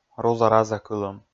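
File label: "руза-разакылӧм", Komi, male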